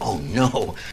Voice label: "oh no", male; ooh ahh